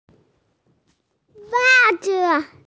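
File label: "socks", hearing impaired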